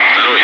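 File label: Russian bright; Wingman; DCS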